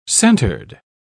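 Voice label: "centered", British English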